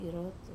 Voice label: "rotu", to make sound, wamesa

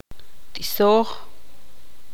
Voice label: tzutujil; coser

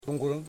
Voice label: "Eye", Yimas

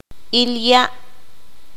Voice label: "Peste enfermedad", Q'anjob'al

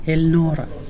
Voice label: "stomach", Pingelapese

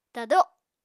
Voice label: "tado", Apatani

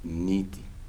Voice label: "minute", Kibembe

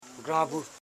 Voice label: "gran bu", Koro house parts

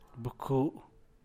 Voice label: "bcu", San Mateo Macuilxochitl Zapotec; altar (of a church); altar (de la iglesia)

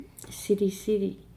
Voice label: "silisili", Nukuoro

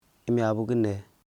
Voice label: fathers sister, Koro

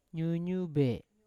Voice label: "nyunyube" to vomit, Yawa

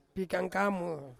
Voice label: "pikangkamo", Ansus; food

